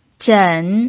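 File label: "zhen" Mandarin, third tone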